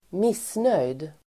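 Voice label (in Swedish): "missnöjd", Swedish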